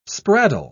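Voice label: "spraddle", British English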